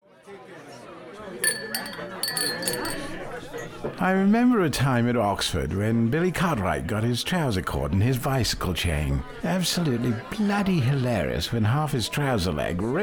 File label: male British voiceover character; spindles